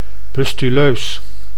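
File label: "pustuleus", Dutch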